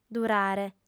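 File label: "durare", Sardinian, female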